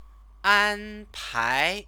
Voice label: "anpai", Chinese; Chinese lessons